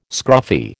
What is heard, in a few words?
"scruffy", American